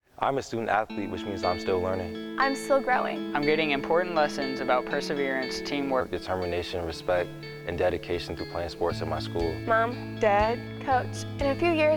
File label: Sportsmanship PSA